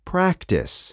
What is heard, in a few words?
"practice", spanish